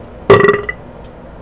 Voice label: male silly, voice; lmctf